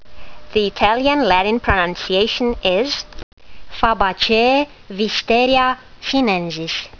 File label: latin; Wisteria sinensis